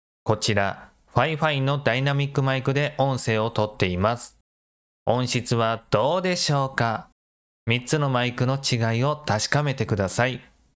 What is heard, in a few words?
fifine tank3